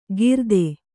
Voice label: "ಗಿರದೆ", Kannada

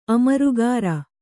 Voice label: "ಅಮರುಗಾರ", Kannada

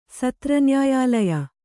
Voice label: "ಸತ್ರನ್ಯಾಯಾಲಯ", Kannada

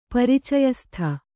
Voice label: "ಪರಿಚಯಸ್ಥ", kannada

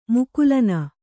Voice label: "ಮುಕುಲನ", Kannada

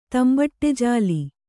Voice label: "ತಂಬಟಜಾಲಿ", Kannada

